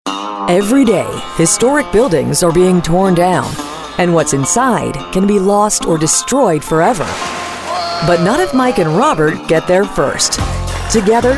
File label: english american tv shows